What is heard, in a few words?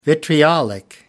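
"vitriolic", British English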